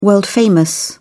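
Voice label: "world famous", British English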